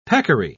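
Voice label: "peccary", British English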